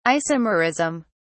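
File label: "isomerism", British English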